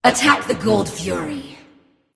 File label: vox attack; smite; arachne